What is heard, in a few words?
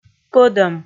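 "пӧдӧм", Komi, female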